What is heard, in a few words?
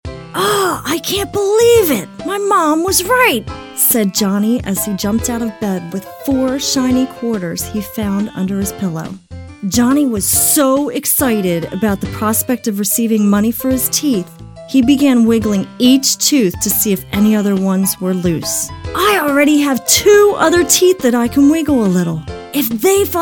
Narrations